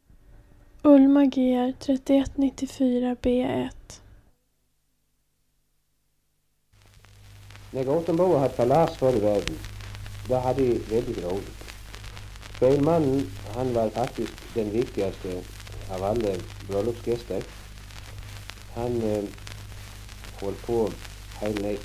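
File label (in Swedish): Gothem Gotland, dialekter; Folkminnen; Svenska sagor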